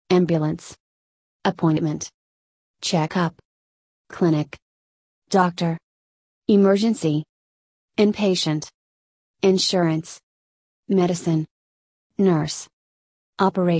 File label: usa; hospitals